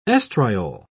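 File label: "oestriol", English, British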